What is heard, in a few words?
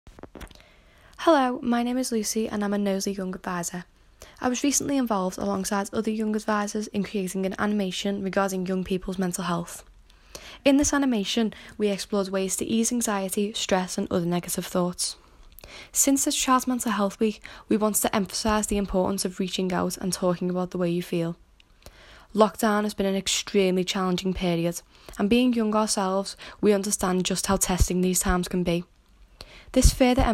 YA voiceover